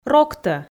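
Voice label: "роктӧ" Komi, female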